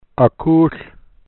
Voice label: Yucca HaKulth, Kumeyaay

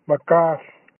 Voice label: "Maklly", Kumeyaay; Backward

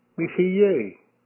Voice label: Afraid Meheyaay, Kumeyaay

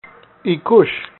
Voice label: "EKush", Kumeyaay; Tall

